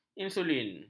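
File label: "insulin", Malay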